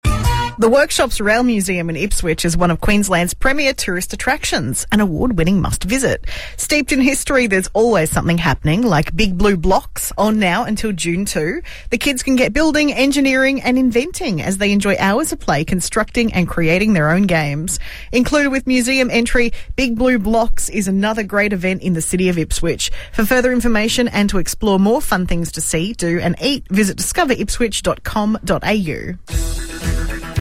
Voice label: Saturday Morning Live Read; 97.3FM; Workshops Rail Museum